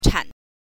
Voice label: "chan5", pinyin

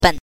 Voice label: "ben5", pinyin

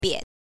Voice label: "bie5", pinyin

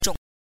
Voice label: "zhong5", pinyin

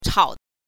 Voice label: "chao5", pinyin

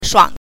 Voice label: "shuang", pinyin

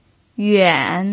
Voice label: "yuan3", pinyin

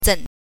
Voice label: "zen", pinyin

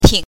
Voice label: "ting", pinyin

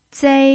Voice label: "zei1", pinyin

